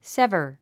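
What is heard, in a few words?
"sever", English word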